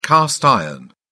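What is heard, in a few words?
"cast iron", British English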